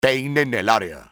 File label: investigate area, spanish soldier; enemy; XBOX